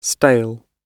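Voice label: "stale", British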